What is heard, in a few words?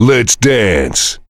heavy good job; tf; duke